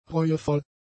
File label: "reuevoll", German; adjectives